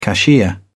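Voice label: "cashier", British English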